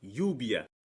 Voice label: "lluvia", Espagnol